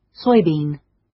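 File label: "soybean", British English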